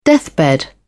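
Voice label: "deathbed", British English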